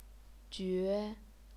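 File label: "jue", Chinese, second tone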